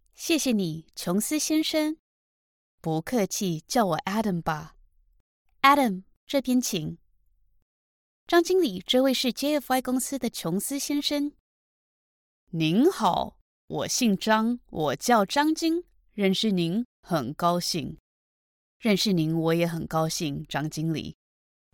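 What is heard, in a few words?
Chinese, General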